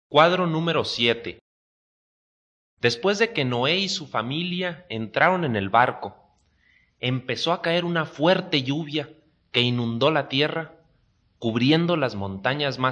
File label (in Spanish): good news spanish mexico